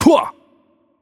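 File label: Attack Heavy, Korean; VO; ko KR; bloom minigame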